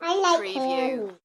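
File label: Kid Says I Like Candy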